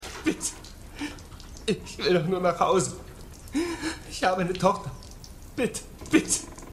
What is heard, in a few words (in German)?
Gefolterter Mann; Revolution